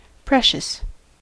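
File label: "precious", US English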